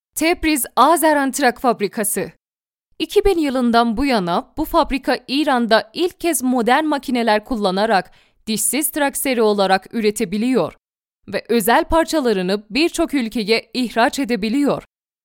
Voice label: narrator